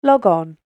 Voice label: "Log On" British Female Voice; human voice sound effect; spoken word; Andrew Fleming

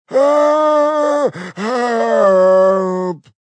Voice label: Help Old Man, Male; Talking Sound Effects

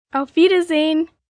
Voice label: German Goodbye, Greetings, 1 child, German; Talking Sound Effects

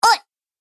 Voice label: Cartoon Girl Oi; Cartoon Voice Sound Effects

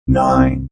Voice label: Robot Voice "0"

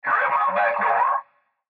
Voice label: Cb Trucker Radio

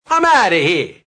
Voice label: I'm Outta Here, Attitude, Male; VoiceBox

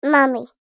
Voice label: human voice girl 2 years says "mummy"; Child Says ’Mummy’; Talking; McKinney Sound

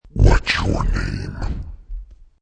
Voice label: Evil Voice Says What is Your Name; Devil Voices; Sound Effects:Horror

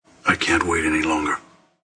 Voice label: Cant Wait Longer, Male; Talking Sound Effects

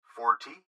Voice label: Gps Voice, Male

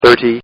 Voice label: Man Says, Talking; Voice

